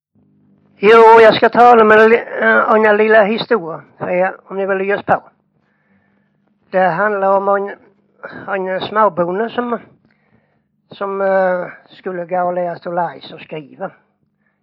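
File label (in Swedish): Jämshög Blekinge, dialekter; Folkminnen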